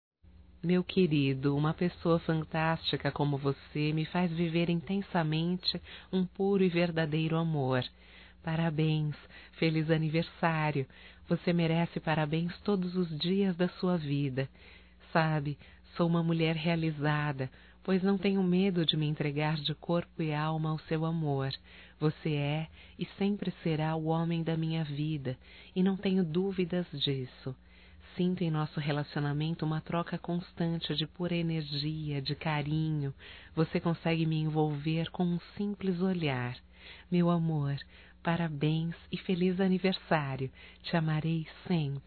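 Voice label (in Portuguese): romântico female, Telemensagens Aniversarios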